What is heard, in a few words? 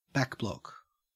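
"backblock", Australian English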